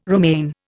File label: "romaine", Indian